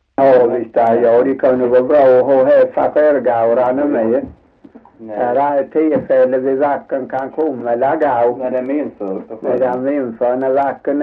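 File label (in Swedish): Torhamn Blekinge, Svenska sagor dialekter; Folkminnen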